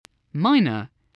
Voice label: "minor", voice